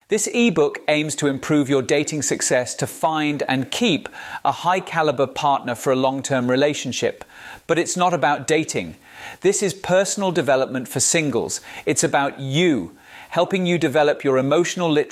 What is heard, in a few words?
english